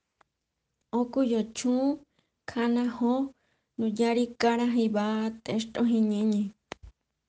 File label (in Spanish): mazahua; Justicia